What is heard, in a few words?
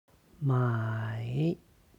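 "mai", Chinese; 买; 買う; HSK Level One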